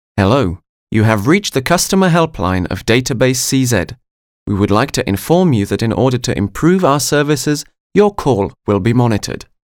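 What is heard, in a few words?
English, male; Hlásky pro IVR menu ve více jazycích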